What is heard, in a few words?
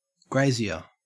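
"grazier", Australian English; pronunciations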